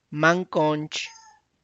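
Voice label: tlacochahuaya, Zapotec; snail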